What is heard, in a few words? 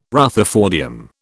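"rutherfordium", British English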